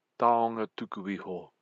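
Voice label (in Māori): "taonga tuku iho", Māori word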